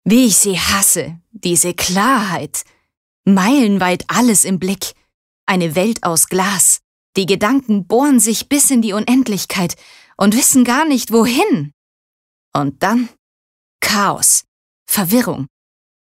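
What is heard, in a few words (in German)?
Schauspiel Dynamisch; Klarheit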